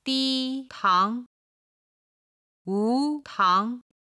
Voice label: "ditangwutang", Chinese